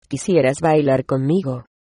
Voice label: dance, es

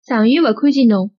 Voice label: long time no see, shanghainese

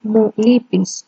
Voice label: "i miss you", Greek

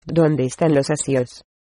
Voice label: "toilet", Spanish

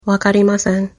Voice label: no understand, japanese

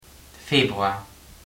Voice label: february, luxembourgish

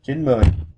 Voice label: "ninety" vietnamese

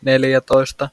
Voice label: 14, Finnish

